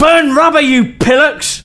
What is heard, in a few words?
Run, male, voice commands; svencoop